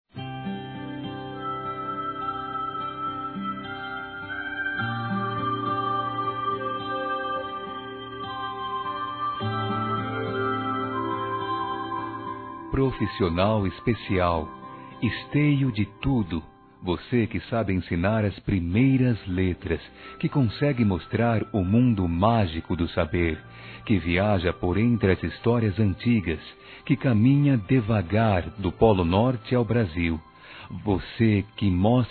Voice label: AO PROFESSOR M, Telemensagens; Homenagens; masc

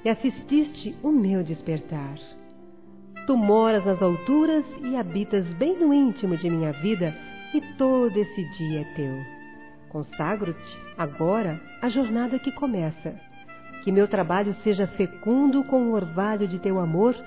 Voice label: ORAÇÃO DA MANHÃ F, Gospel, fem; Telemensagens; Telemensagens 2017

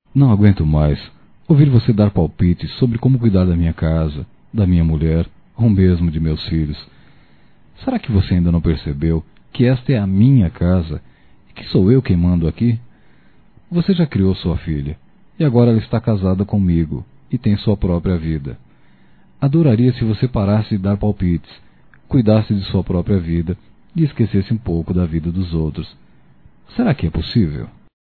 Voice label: Pessoa Intromedida Masculino, Broncas; Telemensagens 2017

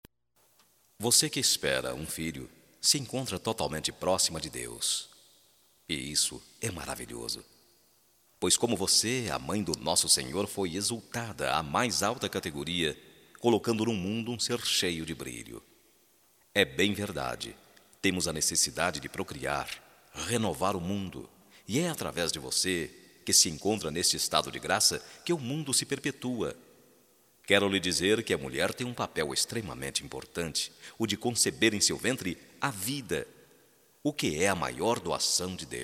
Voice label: GRAVIDEZ, Telemensagens, masc

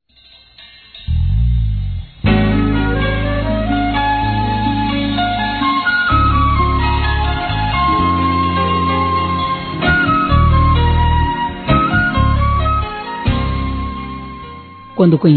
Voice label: ROM. SUAVE F; Aniversários; ANIVERSARIO romantico; fem

